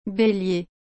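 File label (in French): "bélier", French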